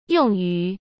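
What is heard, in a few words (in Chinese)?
"用于", Mandarin Chinese; hsk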